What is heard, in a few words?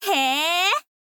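"hee", girl, Japanese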